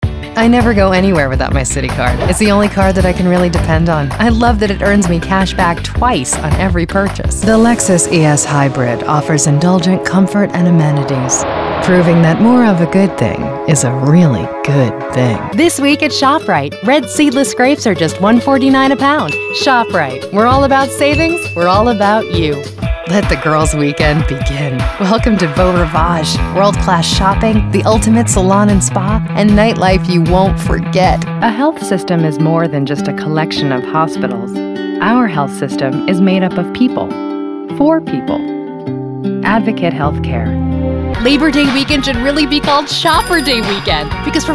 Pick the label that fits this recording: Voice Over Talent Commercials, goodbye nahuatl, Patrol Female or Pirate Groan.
Voice Over Talent Commercials